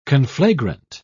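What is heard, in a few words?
"conflagrant", British English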